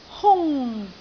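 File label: "hung1", Cantonese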